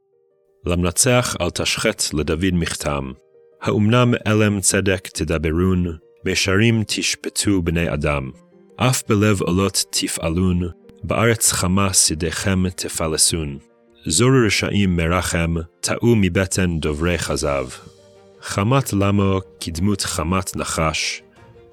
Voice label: poetry and prayer psalm hebrew